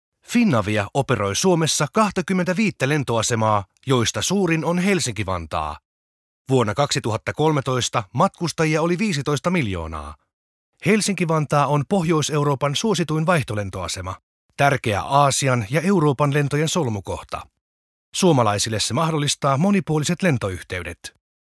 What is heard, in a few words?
fi informative